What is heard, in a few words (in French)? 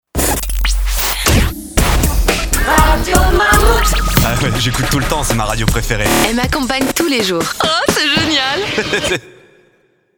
ma radio preferée, jingles